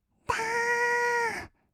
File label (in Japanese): だーーーっ, kawaii voice; 音ゲー